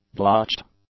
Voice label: "blotched", English; adjectives

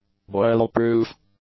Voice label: "boil-proof", English; adjectives

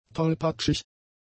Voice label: "tolpatschig", German; adjectives

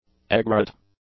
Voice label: "egret", English; nouns

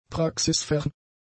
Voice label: "praxisfern", German; adjectives

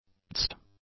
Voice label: "deuced", english; adjectives

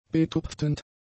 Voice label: "betupftend", German; adjectives

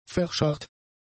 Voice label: "verscharrt", German; adjectives

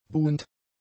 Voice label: "buhend", German; adjectives

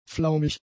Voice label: "flaumig", German; adjectives

